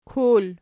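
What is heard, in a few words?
"kul", nominative, Archi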